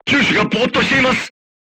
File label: voice message gunlayer contused, crew tanks japanese